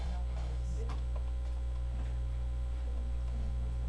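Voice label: "can", spoken word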